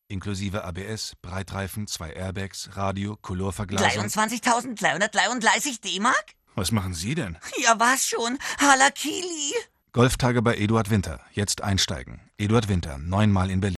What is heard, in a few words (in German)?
trickstimme